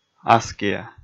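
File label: "аскиа", Komi, male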